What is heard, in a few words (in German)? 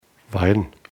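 "wein", German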